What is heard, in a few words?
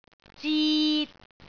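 "zit3", Cantonese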